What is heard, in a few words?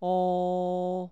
clean speech; speech enhance